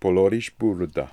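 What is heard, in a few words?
"Polorishboorda", pronunciations; Fourth Way; Beelzebub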